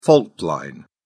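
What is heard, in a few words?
"fault line", British English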